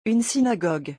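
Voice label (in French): "une synagogue", French; vocabulaire; le lexique des vacances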